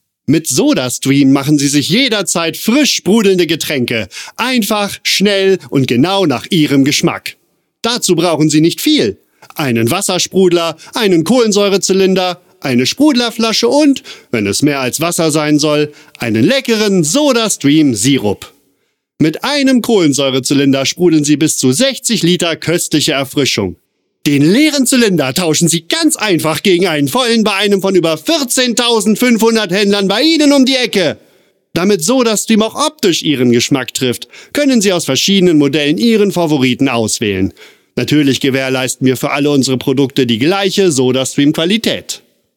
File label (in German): Werbung